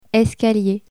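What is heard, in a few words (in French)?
"escalier", French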